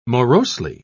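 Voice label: "morosely", British English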